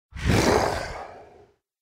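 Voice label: "yes", orc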